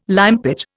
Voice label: "limepit", Indian

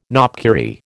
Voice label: "knopkierie", American

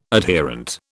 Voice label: "adherent", British

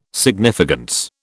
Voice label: "significance", British

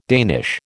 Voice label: "Danish", American

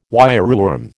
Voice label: "wireworm", American

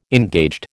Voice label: "engaged", American

